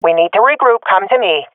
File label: request regroup, swat fem; vo; agents; Source Model Packs; Counter Strike Model Pack; CS2